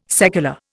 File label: "secular", Indian